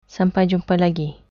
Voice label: goodbye, malay